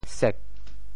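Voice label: "sek4", Teochew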